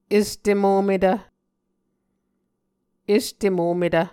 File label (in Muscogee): "este mometv", Muscogee